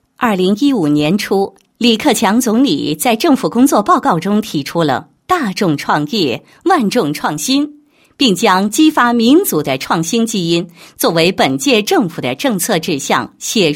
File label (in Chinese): 女-解说-公司职工“创意创新”大赛视频片解说词, 配音案例